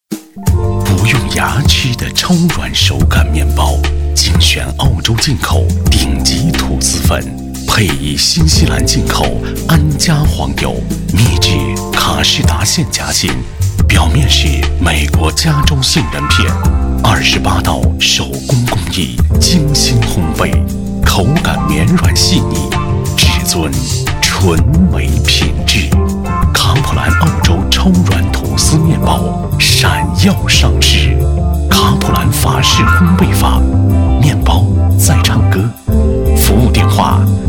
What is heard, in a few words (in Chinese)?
男25, 国语男声配音; 卡普兰澳洲超软吐司面包